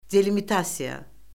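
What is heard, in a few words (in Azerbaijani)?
"delimitasiya", Azerbaijani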